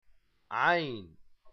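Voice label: "ayn", Arabic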